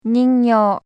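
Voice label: "ningyou", Japanese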